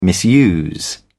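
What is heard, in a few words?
"misuse", British English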